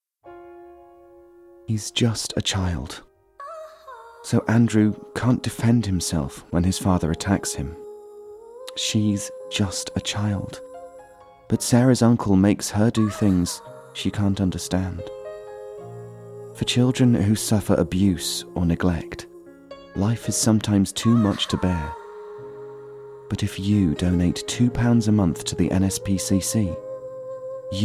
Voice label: Commercials